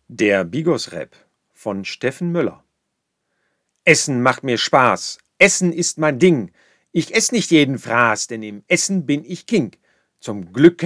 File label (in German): Sprecherprobe Rap